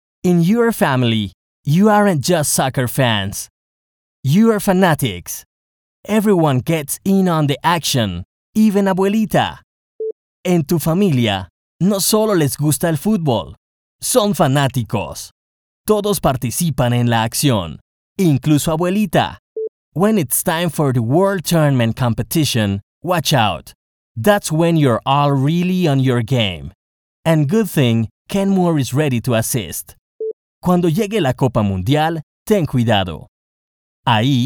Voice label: Casting MALE BILINGUAL VO